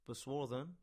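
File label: "Boswarthen", English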